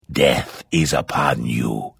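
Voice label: Hello, Vo; Morrowind GOTY GOG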